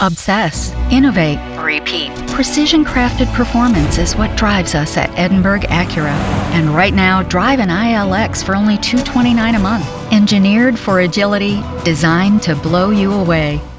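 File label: voice over demos; Acura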